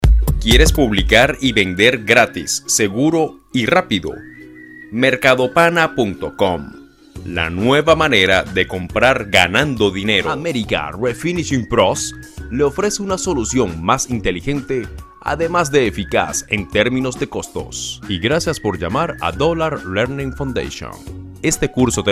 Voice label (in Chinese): 西班牙语男-宣传片